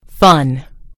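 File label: "fun", British English; gcompris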